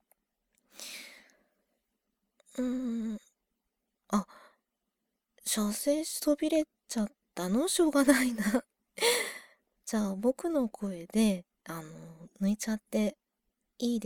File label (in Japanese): オナサポボイス ステレオ; 射精しそびれたリスナーさんへ; 音質調整